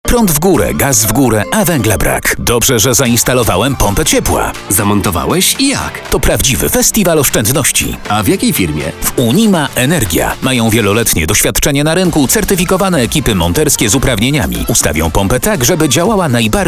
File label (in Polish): reklama radiowa pompy ciepla; unima energia